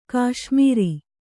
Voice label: "ಘಸ್ಮರ", Kannada

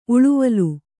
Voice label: "ಉಳುವಲು", Kannada

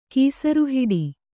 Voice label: "ಕೀಸರುಹಿಡಿ", Kannada

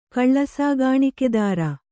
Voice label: "ಕಳ್ಳಸಾಗಾಣಿಕೆದಾರ", Kannada

